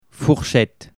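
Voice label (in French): "fourchette", French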